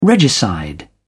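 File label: "regicide", British English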